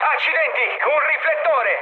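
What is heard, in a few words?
Italian searchlite mood med, speech; War Thunder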